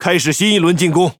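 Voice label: Speech Pilots Torpedo Engage, Chinese; World Of Warships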